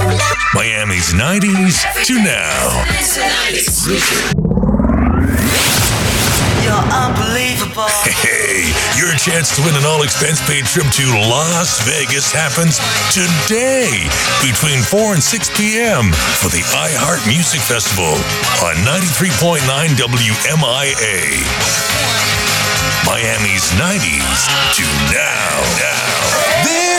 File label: All 90s Radio